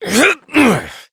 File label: Horse Mount Adult; Duviri